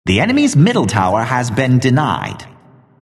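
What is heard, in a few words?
announcer tower deny enemy mid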